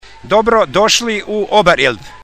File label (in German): bosnisch